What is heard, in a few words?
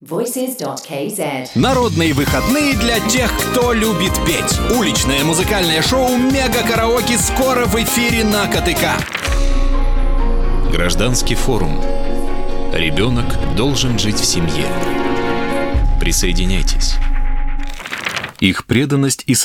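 Russian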